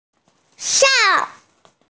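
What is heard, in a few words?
"shang4", Mandarin Chinese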